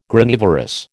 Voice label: "Granivorous", American